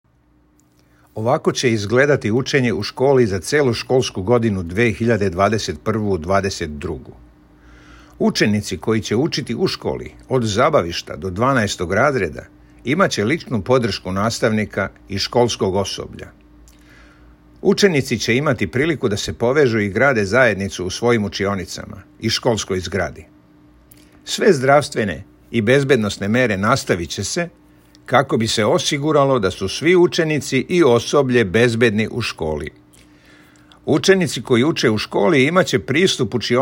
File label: SERBIAN